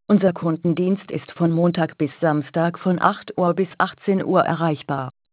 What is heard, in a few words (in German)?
Ansage; telefonie